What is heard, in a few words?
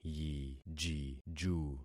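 "yijiju", concatenated syllables; Affixing experiment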